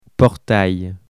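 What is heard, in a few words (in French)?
"portail", French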